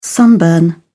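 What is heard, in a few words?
"sunburn", English, uk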